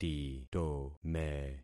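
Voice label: "titome", concatenated syllables; Affixing experiment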